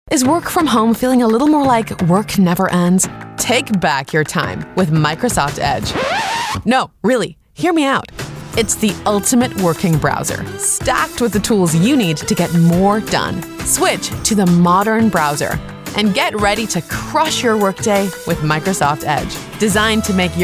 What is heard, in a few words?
US Commercial